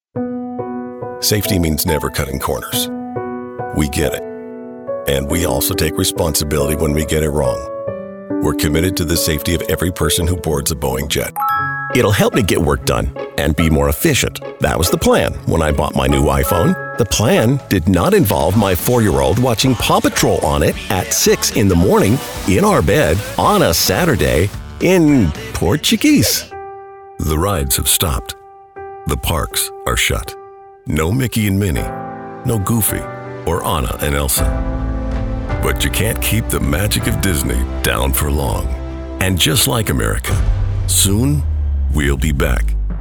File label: Commercial